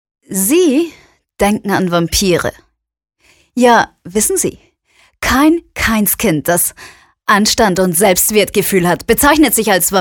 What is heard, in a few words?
audio book